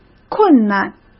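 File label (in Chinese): "困难", Mandarin Chinese; hsk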